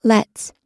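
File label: "lets", US English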